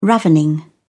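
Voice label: "ravening", British English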